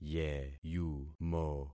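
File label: "yeyumo", concatenated syllables; Affixing experiment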